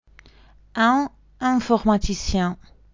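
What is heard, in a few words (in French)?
"un informaticien", French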